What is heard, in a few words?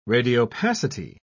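"radiopacity", British English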